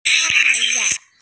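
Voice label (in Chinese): "tai4yang2", Mandarin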